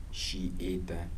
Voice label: "then", Kibembe